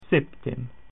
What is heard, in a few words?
"seven", Latin